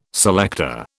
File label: "selector", British